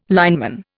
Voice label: "lineman", Indian